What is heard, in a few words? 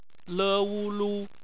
"lu", Chinese; phonetics